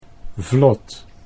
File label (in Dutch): "vlot", Dutch; 'raft'; think of: 'to float'